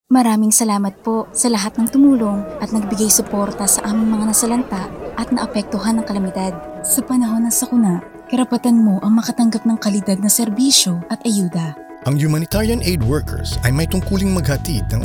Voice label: Radio Plug